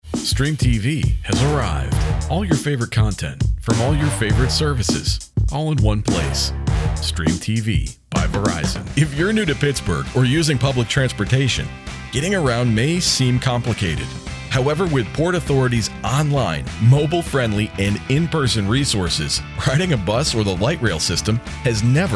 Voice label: Commercial, Warm, approachable, dynamic voiceover